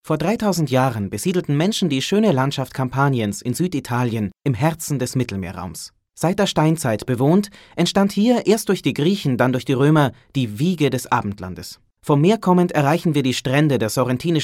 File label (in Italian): tedesco giovane